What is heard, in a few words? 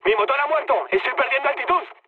damaged engine, mood high, speech; War Thunder